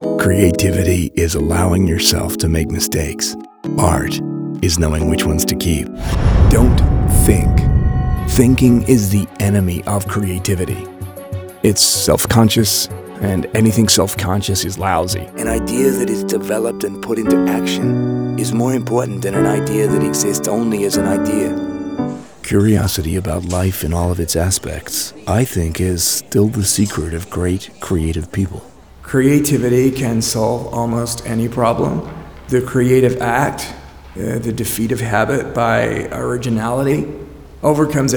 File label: US Accents